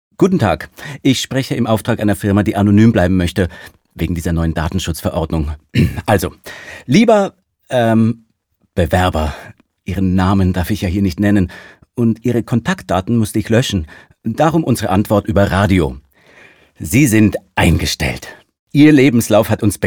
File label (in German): Funkspot